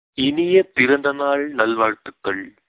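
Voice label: happy birthday, tamil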